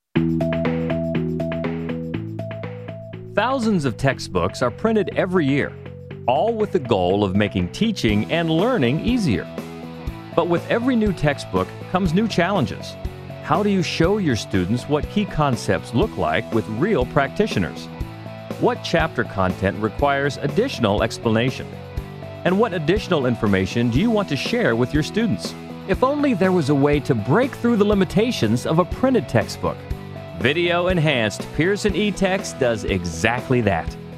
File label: narration; outtakes